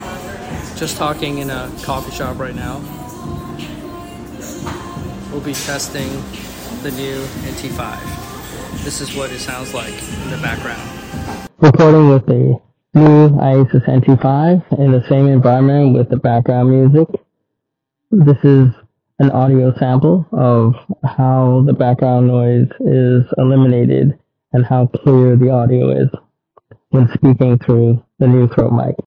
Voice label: nt5 throat mic sample recording; nt5 usb c right angle with no ptt; iasus concepts